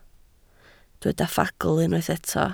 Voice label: "ffagl", Welsh

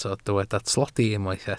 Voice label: "tlodi", Welsh

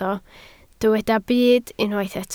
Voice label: "byd", Welsh

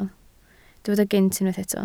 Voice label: "gynt", Welsh

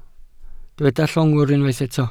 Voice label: "llongwr", Welsh

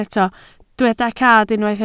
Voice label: "cad", Welsh

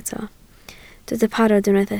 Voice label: "parod" Welsh

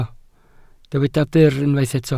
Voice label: "byr", Welsh

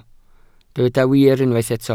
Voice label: "wir" Welsh

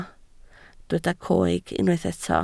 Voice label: "coeg", Welsh

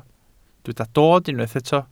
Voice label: "dod", Welsh

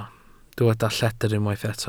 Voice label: "lledr", Welsh

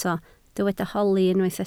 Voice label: "holi", Welsh

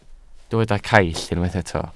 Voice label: "caill", Welsh

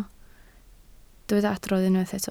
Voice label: "adrodd", Welsh

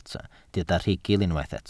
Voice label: "rhugl", Welsh

